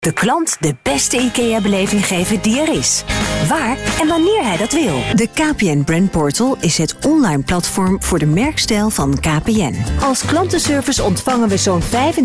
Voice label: corporate